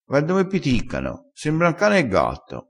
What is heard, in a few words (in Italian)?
"piticca", crespinese